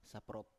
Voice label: "saprop", Biak